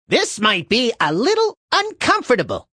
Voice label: A Little Uncomfort, Male; Talking

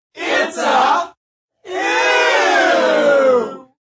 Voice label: Its A Eeeww Group, Group

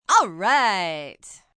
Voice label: "Alright" React, Reaction, positive, female; Exclamation; VoiceBox

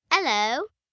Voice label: Brit Hello, Greeting, 1 girl, 9 yrs; Talking Sound Effects; VoiceBox; Twistedtracks

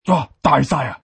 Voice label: Asian Thug

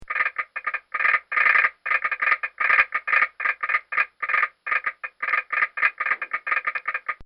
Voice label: Emergency, pain; Voice

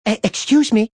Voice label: "Excuse me", Male; Talking Sound Effects; Voice

